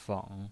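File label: "feng" Mandarin Chinese, third tone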